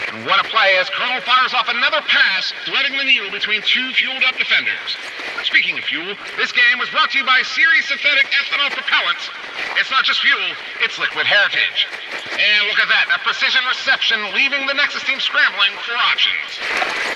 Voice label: Transmission Echoes

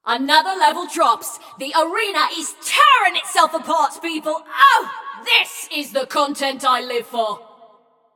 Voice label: Scrap Run Entire Arena Nearly Gone, Announcer

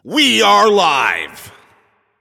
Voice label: Game Start, Announcer

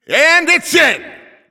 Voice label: Goal Scored, Announcer

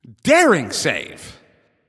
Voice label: Announcer Goalie Save

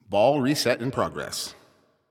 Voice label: Announcer Waiting For Players After Score

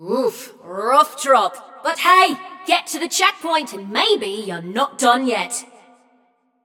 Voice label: Scrap Run Go To Checkpoint, Announcer; Flux

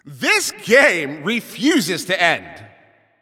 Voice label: Announcer Overtime Starts

